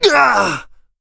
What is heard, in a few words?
hit, en; Cookie Run